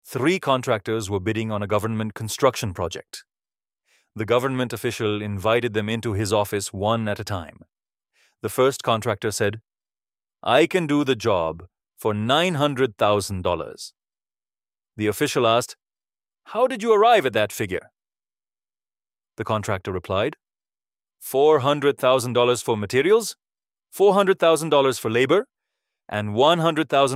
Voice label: Narration